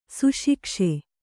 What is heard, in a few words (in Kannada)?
"ಸುಶಿಕ್ಷೆ", kannada